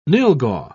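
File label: "nylghau", English, British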